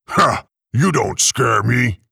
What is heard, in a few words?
You Don't Scare Me, Orc; Urok the Orc